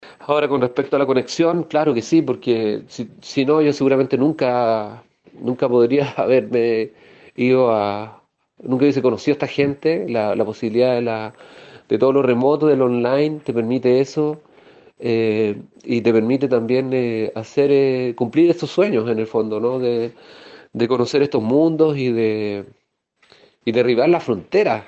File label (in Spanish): cuña